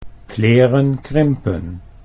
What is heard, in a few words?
"kleren krimpen", Dutch